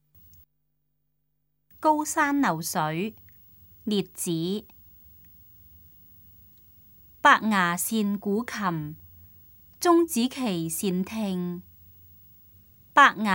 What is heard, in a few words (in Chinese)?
cantonese; 高山流水; 粵語